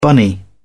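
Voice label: "bunny", British English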